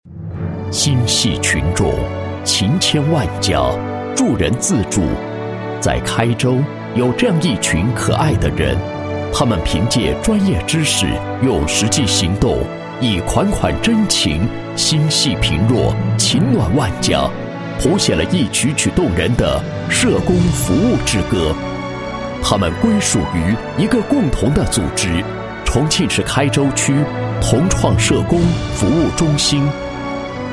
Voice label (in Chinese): 男 爱心组织宣传 介绍配音; 重庆市同创社工服务中心